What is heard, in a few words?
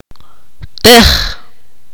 "stab", Akateko